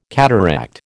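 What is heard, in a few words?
"cataract", American English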